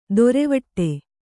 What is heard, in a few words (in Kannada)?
"ದೊರೆವಟ್ಟೆ", Kannada